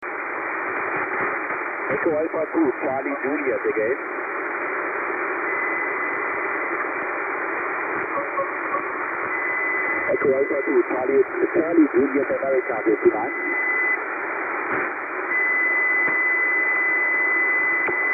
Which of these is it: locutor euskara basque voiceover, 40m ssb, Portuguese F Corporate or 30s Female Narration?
40m ssb